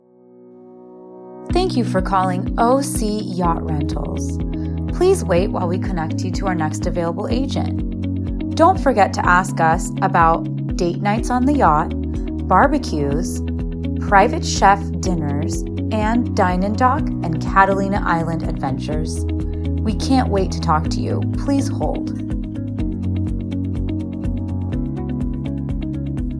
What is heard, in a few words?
ivr greeting